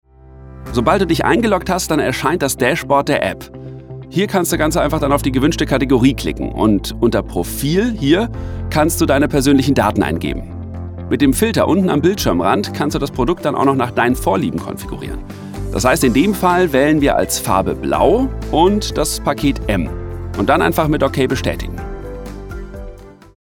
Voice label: e-learning jung locker musik

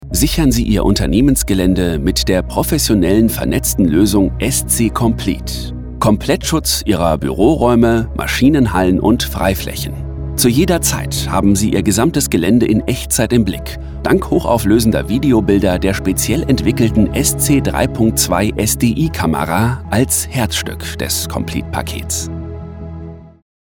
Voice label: produktvideo informativ klar musik

